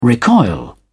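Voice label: "recoil", British English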